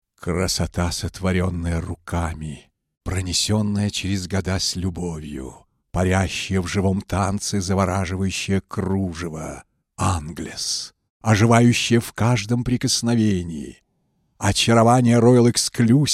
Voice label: russian voice over impressive commercial advertisement